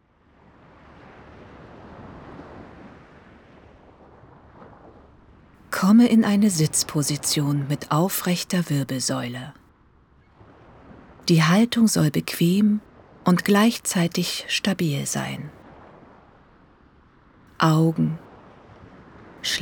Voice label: Sprachprobe Übung Meeresrauschen Atmung

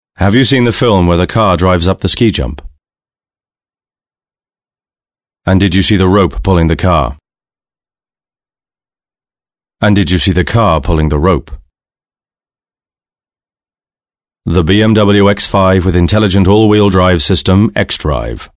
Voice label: uk englisch

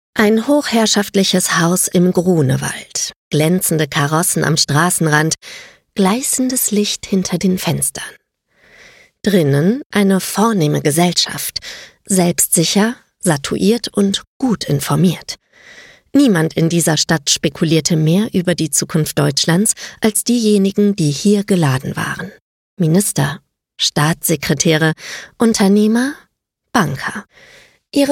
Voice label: Hörbuch, Audiobook, German; Hörbuch I Historischer Roman; voice

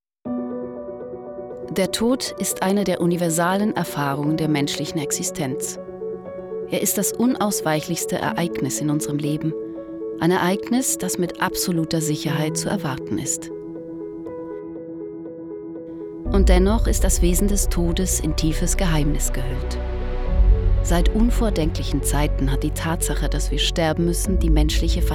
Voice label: doku

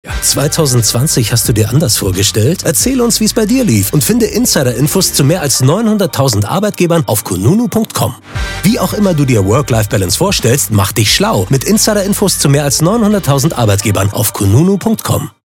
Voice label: Funk claim; Kununu com

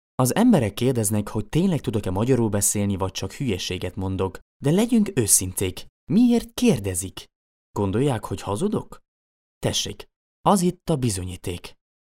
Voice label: Ungarisch

